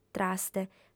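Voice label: "traste", Sardinian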